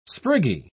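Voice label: "spriggy", English, British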